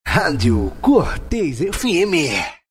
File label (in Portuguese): vinheta radio; RÁDIO CORTÊS FM 87.9